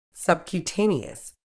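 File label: "subcutaneous", British English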